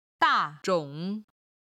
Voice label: "da4 zhong3", Chinese

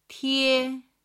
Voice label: "tie", Chinese

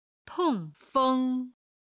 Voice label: "tong4 feng1", chinese

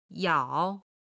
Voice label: "yao3", Chinese

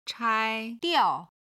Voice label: "chai1 diao4", chinese

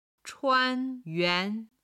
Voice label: "chuan yuan", chinese

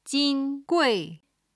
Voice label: "jingui", Chinese

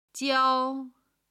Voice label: "jiao1", Chinese

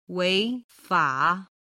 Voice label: "weifa", Chinese

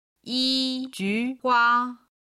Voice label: "yijuhua", Chinese

